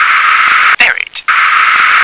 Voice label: "ferret", English